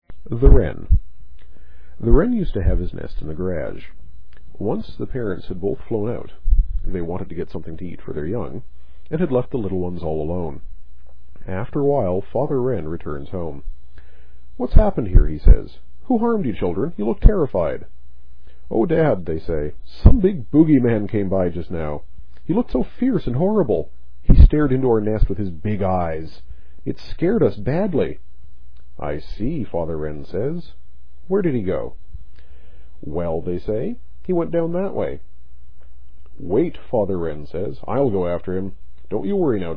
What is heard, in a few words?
english canada prairies